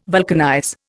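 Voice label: "vulcanize", Indian English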